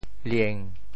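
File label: "lieng7", Teochew; 楝; liàn; 潮州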